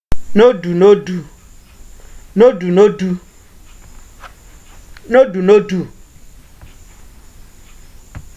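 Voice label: "nodunodu", Nigerian Pidgin, male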